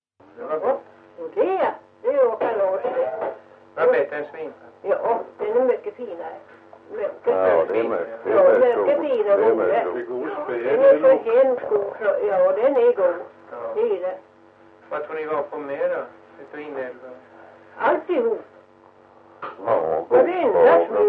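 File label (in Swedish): Ventlinge Öland, Svenska sagor dialekter; Folkminnen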